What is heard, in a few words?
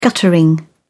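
"guttering", British English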